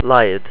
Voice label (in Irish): "laghad", ireland; aigh-, -eidh-, -adh-, -agh; Monophtongs